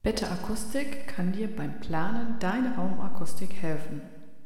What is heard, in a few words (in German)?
ohne Bearbeitung; betterAcoustic; mittlere Nachhallzeit